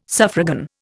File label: "suffragan", Indian